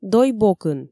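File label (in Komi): "дой бокын", Komi, female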